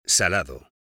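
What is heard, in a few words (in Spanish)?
"salado", Spanish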